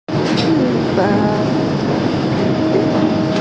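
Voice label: "chi bang", Mandarin Chinese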